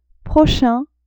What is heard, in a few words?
"prochain", French; adverbs